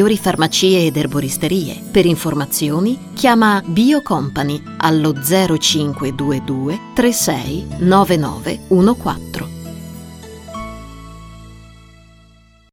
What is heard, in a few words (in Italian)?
spot multivit integratore